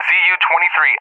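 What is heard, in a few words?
"ZU-23", English, USA; AirDefence; DCS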